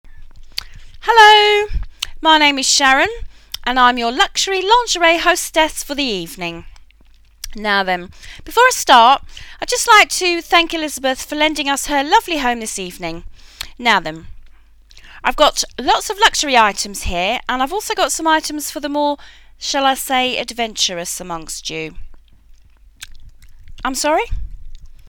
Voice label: Vocal Lingerie Hostess